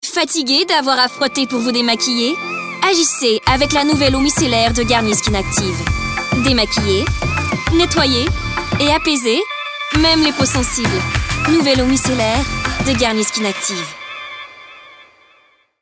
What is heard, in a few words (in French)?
Pub fictive, Affirmée Déterminée Rassurante; Garnier Skin Active